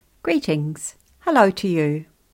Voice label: greetings hello, Korero; Korero books